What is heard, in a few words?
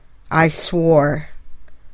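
"I swore", English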